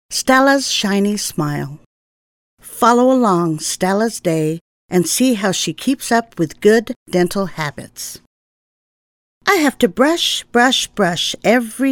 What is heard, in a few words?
English Voice over